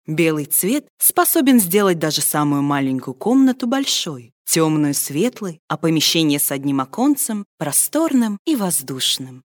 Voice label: RUS

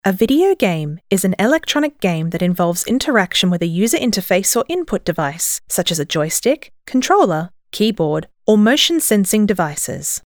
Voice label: eng

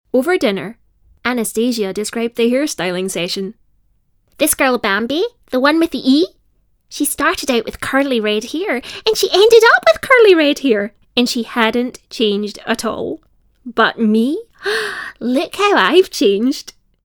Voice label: Audiobook

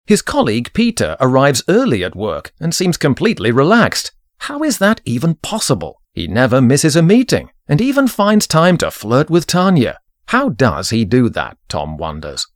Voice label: Audiobook

